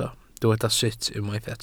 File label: "sut", Welsh